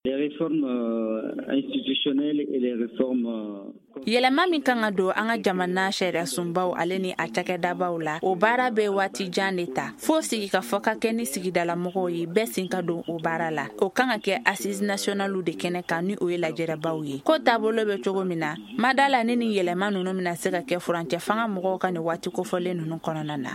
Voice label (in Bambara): magazine bamanankan